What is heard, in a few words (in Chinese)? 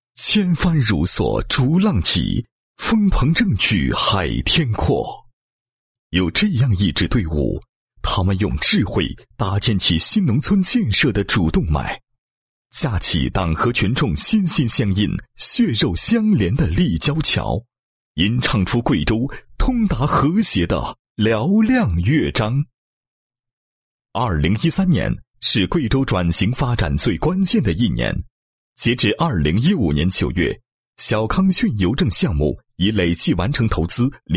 男 纪实; 邮政建设纪实巡礼